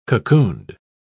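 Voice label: "cocooned", English, British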